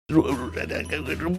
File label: funny mumbling